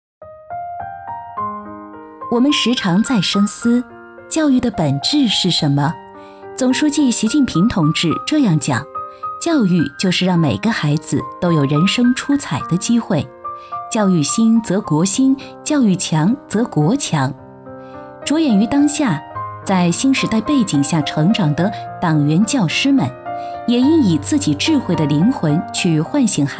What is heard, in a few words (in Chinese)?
女 专题 教育